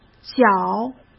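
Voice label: "角", Mandarin Chinese; hsk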